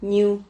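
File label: "ñiu", Spanish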